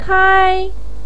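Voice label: "kai" first tone, chinese, voice pinyin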